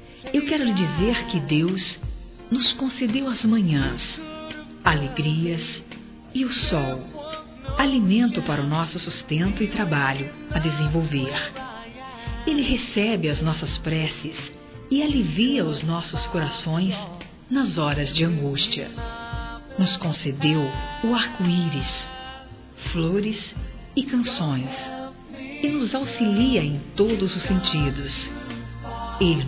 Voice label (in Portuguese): SOBRINHA (F)